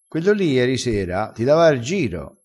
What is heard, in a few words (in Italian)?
"rivendila", crespinese